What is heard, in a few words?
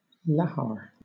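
"lahar", British English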